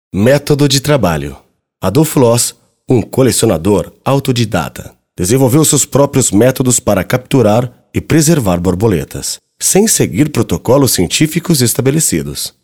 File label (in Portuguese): audio guia, voz masculina; painel 2 metodo de trabalho; exposicao casa das borboletas